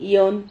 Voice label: "ion", Silabas

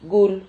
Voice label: "gul", Silabas

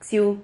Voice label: "xiu", Silabas

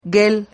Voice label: "guel", Silabas

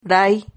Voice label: "ray", Silabas

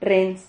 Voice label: "rrens", silabas

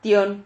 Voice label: "tion", Silabas

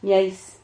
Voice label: "miais", Silabas

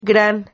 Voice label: "gran", Silabas

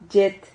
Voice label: "llet", Silabas

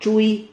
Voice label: "chui", Silabas

